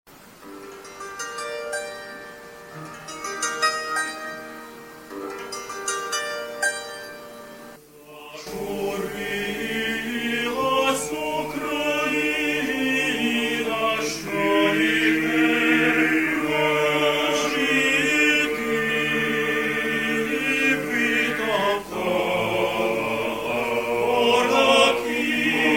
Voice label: ukrainian; Посол України про війну, взаємини із Австралією та українську спільноту; SBS Ukrainian

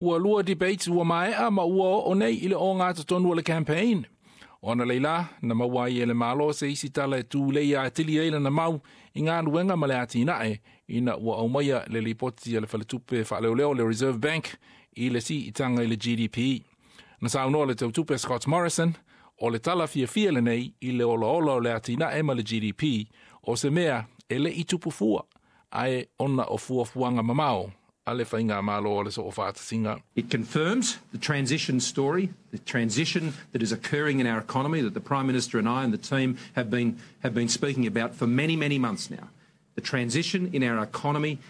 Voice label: samoan; SBS Samoan; I le 'afa o le campaign mo palota

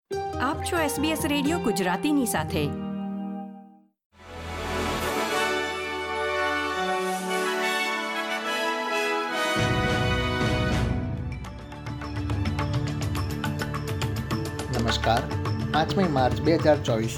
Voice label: gujarati news bulletin; SBS Gujarati News Bulletin 5 March 2024

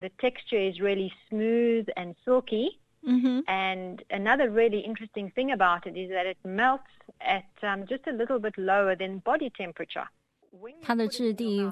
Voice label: mandarin; SBS Chinese; 巧克力：被遗忘的补脑食品？

